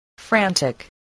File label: "frantic", English voice, spelling